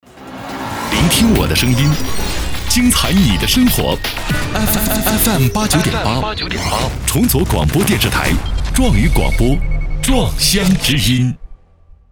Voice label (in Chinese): 青春 台宣